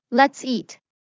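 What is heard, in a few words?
Lets eat, English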